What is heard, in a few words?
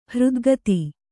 "ಹೃದ್ಗತ", kannada